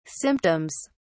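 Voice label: "symptoms", English, British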